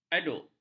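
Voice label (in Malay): "aduk", Malay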